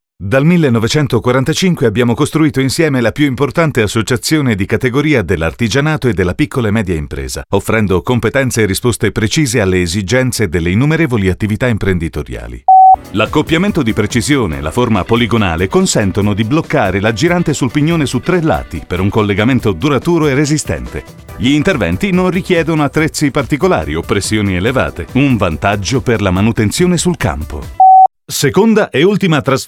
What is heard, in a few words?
ITA Narration long